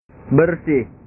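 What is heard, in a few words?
"bersih", Indonesian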